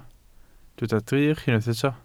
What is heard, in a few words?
"drych", Welsh